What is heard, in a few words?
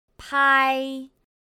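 "pāi", Chinese; pinyin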